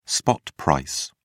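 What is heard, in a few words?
"spot price", British English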